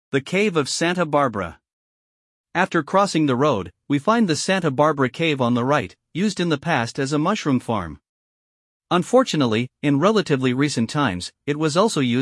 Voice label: Audioguida ENG; Santa Barbara

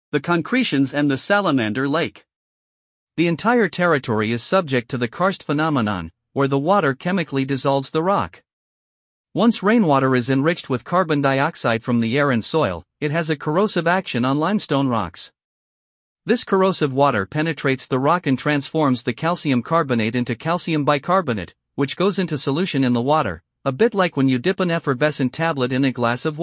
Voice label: Audioguida ENG Concrezioni

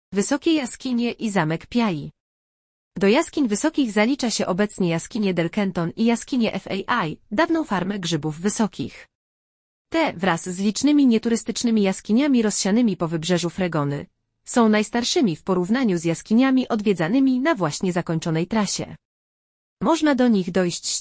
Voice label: Audioguida POL Grotte alte

